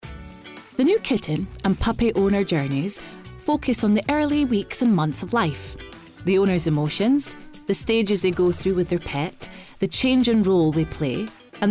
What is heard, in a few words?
Documentary Sample